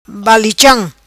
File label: "Balicham", Macanese